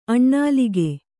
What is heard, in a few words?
"ಅನಿಲಕ", Kannada